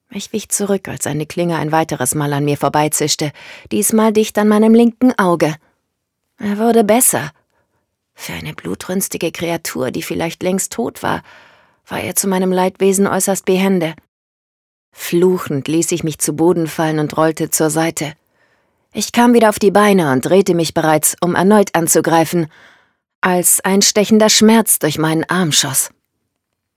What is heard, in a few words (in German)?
Hoerbuch Fantasy, Audiobook (Hörbuch), German; To Kill A Shadow